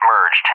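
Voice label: "Merged", English speech; AWACS Messages; DCS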